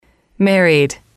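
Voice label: "married", English, female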